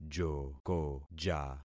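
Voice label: "jokoja", concatenated syllables; Affixing experiment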